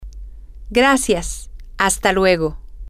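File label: Spanish, female; thank You Until Later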